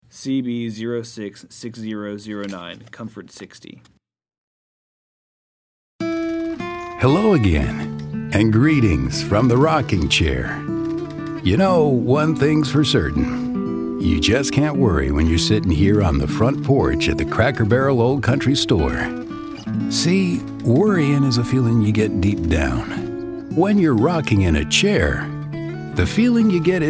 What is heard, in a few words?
60 second radio spot; Cracker Barrel